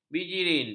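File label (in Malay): "bijirin", Malay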